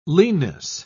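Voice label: "leanness", English, British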